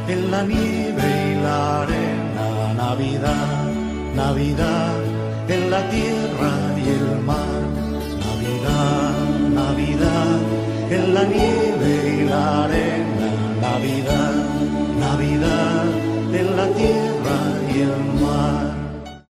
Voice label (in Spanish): mensaje niño; venceremos